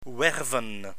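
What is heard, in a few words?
"werven", Dutch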